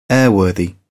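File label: "airworthy", British English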